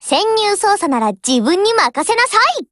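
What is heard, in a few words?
OPERATION BEGIN Japanese, Voice; GF Alarm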